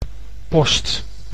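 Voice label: "post", Dutch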